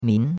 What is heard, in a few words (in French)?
"min2", chinois; Cours-de-Chinois